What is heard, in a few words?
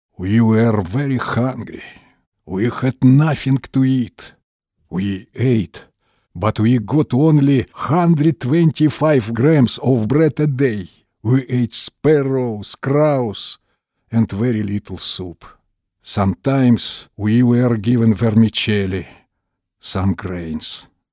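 Russian Russia male English; russian voice over talent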